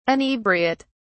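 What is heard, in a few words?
"inebriate", British English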